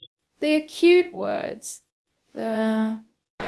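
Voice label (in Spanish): Voice Acting, Spanish, female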